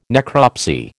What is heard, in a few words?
"necropsy", American